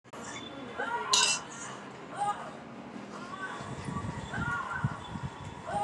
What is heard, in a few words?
voice message